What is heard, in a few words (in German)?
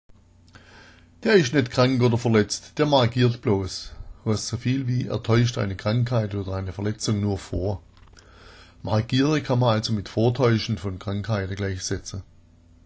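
margiera, schwäbisch; „der ist nicht krank/verletzt, der margiert bloß“ heißt soviel wie „er täuscht eine Krankheit/eine Verletzung nur vor“.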